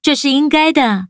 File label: levelup zh-hant; cookie run